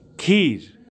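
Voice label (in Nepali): "kheer", Nepali; food drinks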